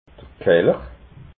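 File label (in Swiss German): "kelch", Alsatian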